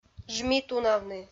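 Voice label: "жмитунавны" Komi, female; audio dict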